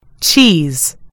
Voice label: "cheese", en GB; gcompris